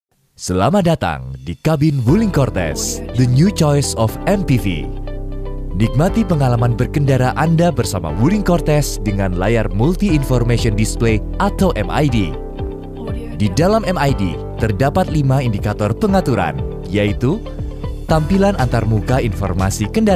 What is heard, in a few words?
indonesian promos